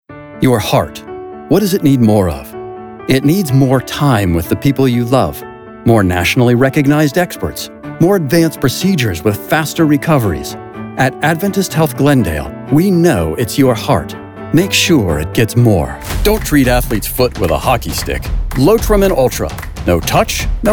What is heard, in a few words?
vo commercial demo